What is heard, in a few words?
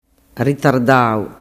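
"ritardau", Sicilian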